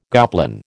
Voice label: "goblin", American

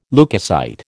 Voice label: "leukocyte", American

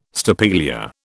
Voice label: "stapelia", British

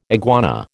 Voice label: "iguana", American

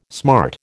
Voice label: "smart", American

